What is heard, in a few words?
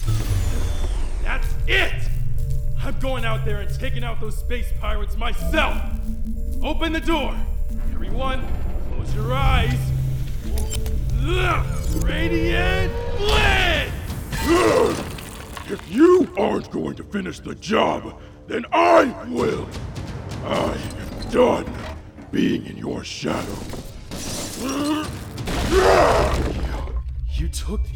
character